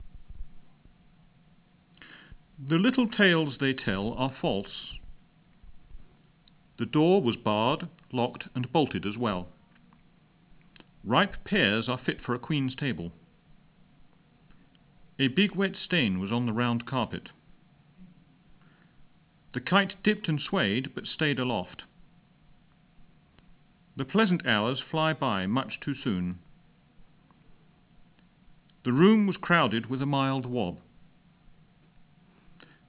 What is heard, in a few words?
british, open speech; uk